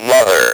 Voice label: "MOTHER", Speak & Spell

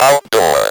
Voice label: "OUTDOOR", Speak & Spell

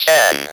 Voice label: "TEN", Speak & Spell

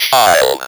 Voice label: "CHILD", Speak & Spell

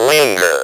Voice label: "LINGER", Speak & Spell

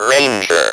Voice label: "RANGER", Speak & Spell

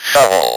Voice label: "SHOVEL", Speak & Spell

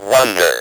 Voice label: "WONDER", Speak & Spell

